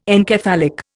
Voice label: "encephalic", Indian